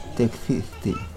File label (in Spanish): Nawat palabras huevo; pipil